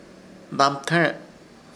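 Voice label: "Dabɨtẽre", Chamacoco word, dientes; Ishir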